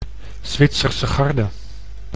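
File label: "Zwitserse Garde", Dutch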